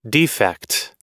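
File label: "defect", US English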